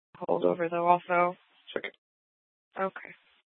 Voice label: Dispatch, Mesa PD; TOPAZ Regional Wireless Cooperative (TRWC) - Mesa Simulcast; 4 Superstition Dispatch